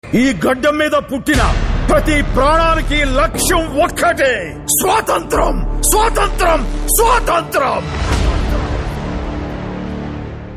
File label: Dialogue Ringtone; Sye Raa; Chiranjeevi